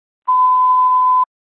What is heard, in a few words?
Fire Department Dispatch, scanner